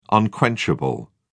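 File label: "unquenchable", British English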